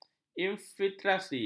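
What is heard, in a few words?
"infiltrasi", Malay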